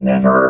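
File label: "never", robot voice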